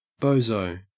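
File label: "bozo", Australian English; pronunciations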